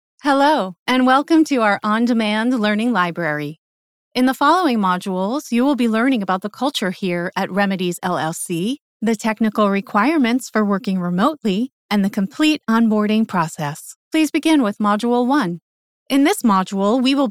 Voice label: Elearning